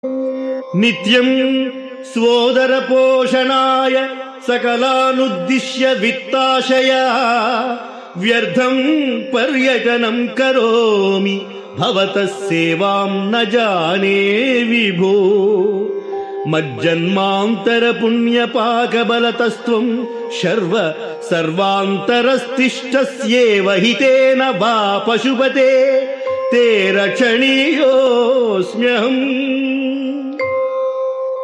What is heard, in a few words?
Sanskrit; Sri Adi Sankaracharyas Sivananda Lahari; Adi Shankaracharya; श्रीशिवानन्दलहरी ५७